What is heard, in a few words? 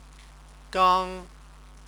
"gang", Chinese